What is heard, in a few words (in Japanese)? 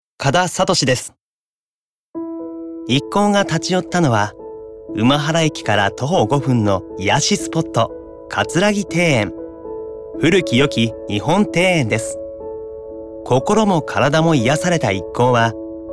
ノーマル